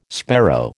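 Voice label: "sparrow", American English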